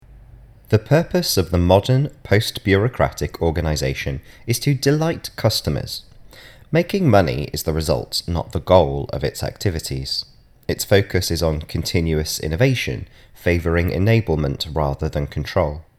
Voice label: Narration; Radius; AI